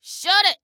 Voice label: Global Quiet, Female

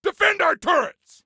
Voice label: Defend Turrets, Soldier Male; Soldier Male 03

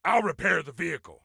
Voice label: Self Repair Vehicle, Soldier Male; Soldier Male 03

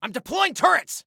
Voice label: Self Task Deploy Turrets, Soldier Male

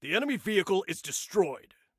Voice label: Enemy Vehicle, Soldier Male

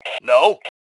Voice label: "No", male; T2 Male 01

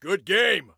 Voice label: Compliment Good Game, Soldier Male

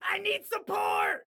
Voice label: Need Support, Excited Guy

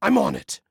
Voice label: Self Task On It, Male

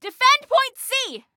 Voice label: DEFEND, Female; T2 Female 03

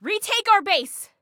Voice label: Base Retake, Female

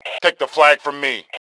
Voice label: Flag Take, Male; T2 Male 02

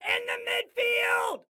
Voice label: Enemy In Midfield, Excited Guy

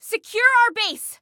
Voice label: Base Secure, Female

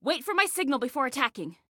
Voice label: Attack Wait, Female

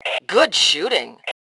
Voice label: Compliment Great Shot, Female; T2 Female 02

